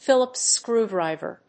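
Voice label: "philips screwdriver", English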